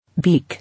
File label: "Beak", English